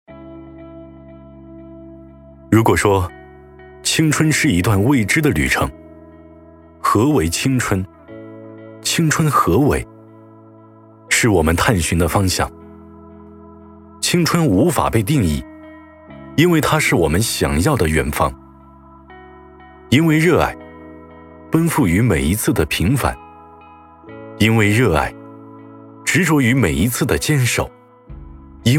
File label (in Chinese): 男 走心 党建 年轻力量; 五四